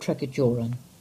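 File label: "Tregajorran" English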